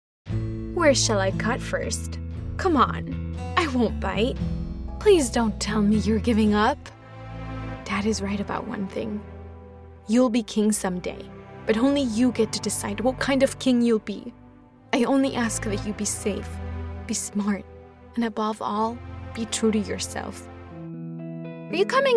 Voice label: interactive english

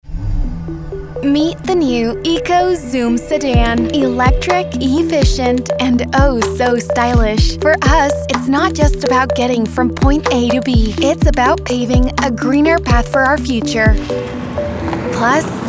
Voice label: ENG ENG Sample Casual Informed

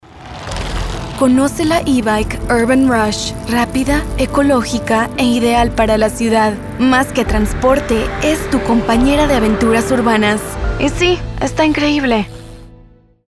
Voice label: ENG LATAM Eco Bike Rustic Authentic

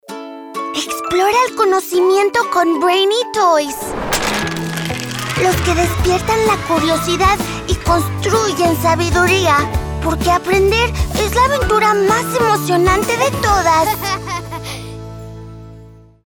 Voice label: ENG LATAM Juegos Educativos Vibrant Stimulating

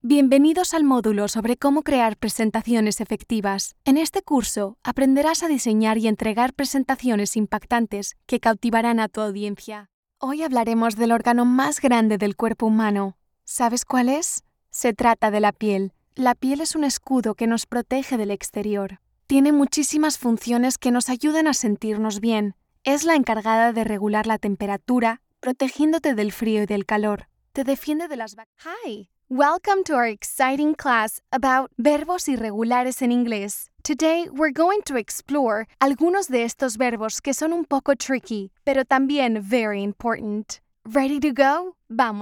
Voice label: e-learning explainer spanish

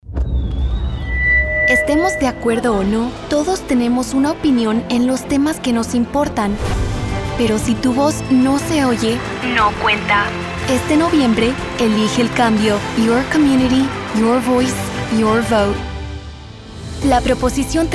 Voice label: Spanish Political

